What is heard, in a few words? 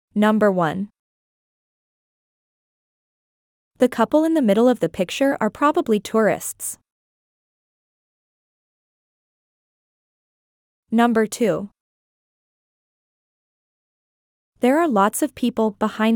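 American; describing travel brochure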